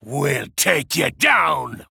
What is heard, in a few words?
Male Dark Iron Dwarf Attack